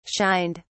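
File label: "shined", British English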